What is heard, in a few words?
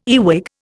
"earwig", Indian